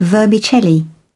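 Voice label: "vermicelli", British English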